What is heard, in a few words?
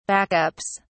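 "backups", British English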